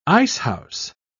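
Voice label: "icehouse", British English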